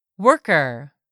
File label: "WORKER", English; 3000 words